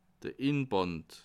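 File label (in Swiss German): "inband", Bas Rhin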